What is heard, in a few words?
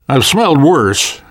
world hospital, namvet, survivor voice